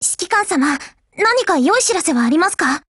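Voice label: HELLO Japanese, Voice; GF Alarm; CETME556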